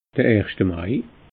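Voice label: "erscht mai", Alsatian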